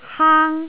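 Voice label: "haang", Cantonese, tone 1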